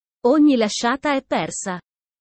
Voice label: italian sayings about life